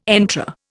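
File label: "entrée", Indian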